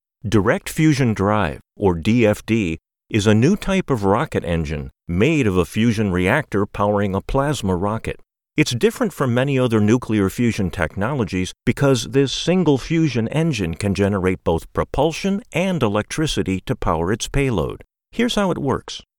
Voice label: Explainer Rocket Engines

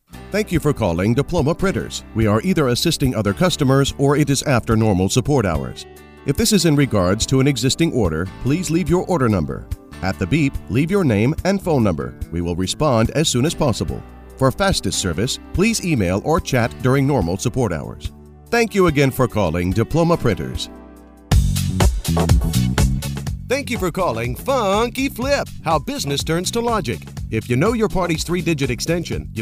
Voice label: Auto Attendant and IVR

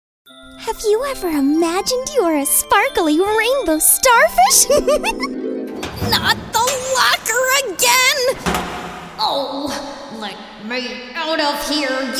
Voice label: character

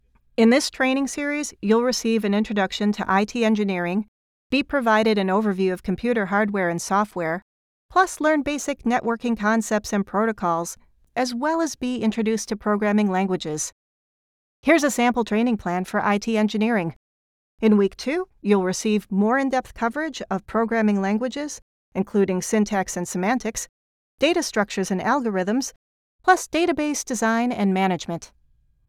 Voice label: E-learning Explainer IT

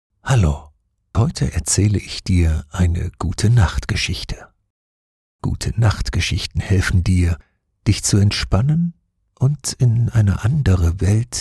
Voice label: Meditation Bed Time Story

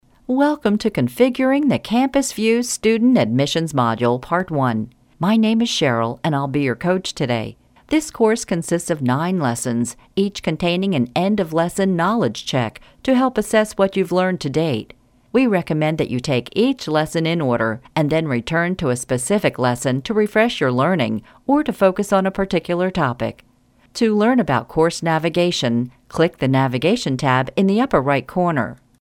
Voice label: ELearning

